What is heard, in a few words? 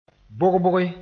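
"bog-boge", Mooré; raising the voice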